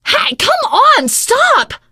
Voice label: friendly fire, teen girl voice; survivor voice

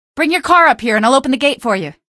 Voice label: citizen bring car, female; hl2; coast; odessa; Source engine

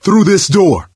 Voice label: misc directional, coach, survivor voice

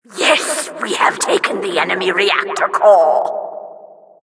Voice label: announcer capture flag team stolen

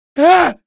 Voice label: shock, male; video games; npc; source engine; episodic; episode 1

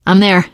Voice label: im with you, teengirl; survivor voice

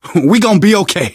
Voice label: hurrah, survivor voice

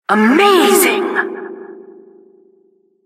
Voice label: announcer killstreak

